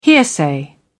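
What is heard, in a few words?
"hearsay", British English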